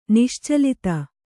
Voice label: "ನಿಶ್ಚಲತೆ", Kannada